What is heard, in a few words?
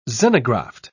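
"xenograft", British English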